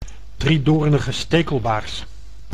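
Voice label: "driedoornige stekelbaars", Dutch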